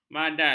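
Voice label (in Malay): "madal", Malay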